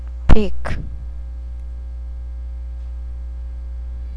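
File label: "pick", spoken word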